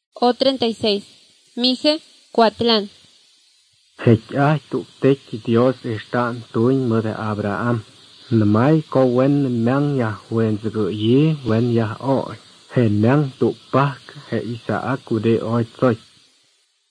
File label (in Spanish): spanish mexico